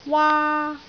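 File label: "waa1", Cantonese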